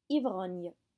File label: "ivrogne", French